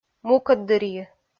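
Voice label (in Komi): "мукӧд дырйи", Komi, female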